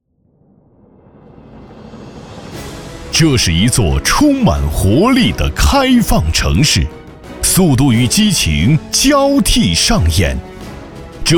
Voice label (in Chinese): 男 企业专题 大气; 深圳建工